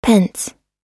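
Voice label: "pence", American English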